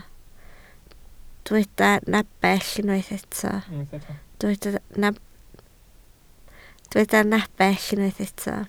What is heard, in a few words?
"nepell", Welsh